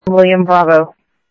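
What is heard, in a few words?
police department, scanner audio trunk; H-Scottsdale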